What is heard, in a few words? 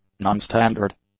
"nonstandard", english; adjectives